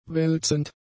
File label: "wälzend", German; adjectives